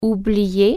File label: forget, french